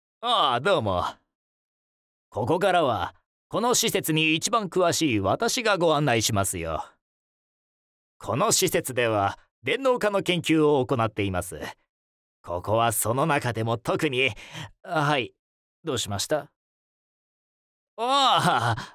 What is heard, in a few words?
male